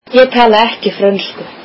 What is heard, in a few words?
icelandic I dont speak French